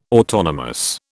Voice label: "autonomous", British